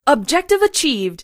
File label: objective achieved, announcer male